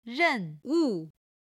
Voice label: "renwu", Chinese